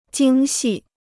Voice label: "精细", Chinese

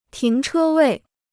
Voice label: "停车位", Chinese

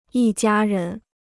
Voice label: "一家人", Chinese

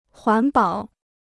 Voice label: "环保", Chinese

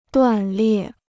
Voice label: "断裂", Chinese

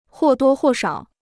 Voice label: "或多或少", Chinese

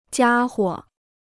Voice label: "家伙", Chinese; 家伙 (jiā huo); domestic animal; (coll.) guy

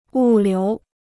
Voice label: "物流", Chinese

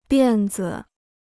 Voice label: "辫子", Chinese

